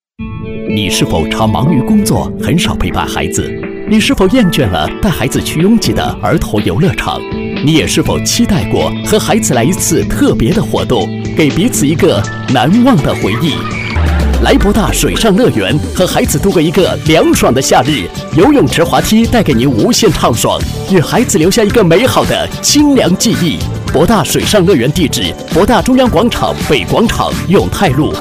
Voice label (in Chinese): 男 阳光动感; 水上乐园